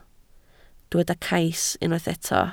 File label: "cais", Welsh